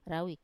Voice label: "rawi" spring, Wamesa

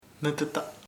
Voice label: "foot pie", chamacoco

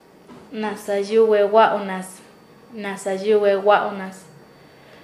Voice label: "persona que habla paez", Nasa Yuwe

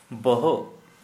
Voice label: Santali, head

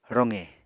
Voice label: "roqe", Apatani

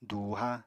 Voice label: "dùuha", Apatani

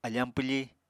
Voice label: "afañ pwfi", apatani

